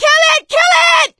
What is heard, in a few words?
battle cry tank, survivor voice; Left 4 Dead 2; producer